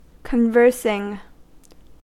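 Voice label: "conversing", American English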